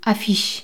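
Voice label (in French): "affiche", French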